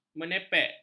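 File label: "menepek", Malay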